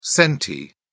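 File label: "centi", British English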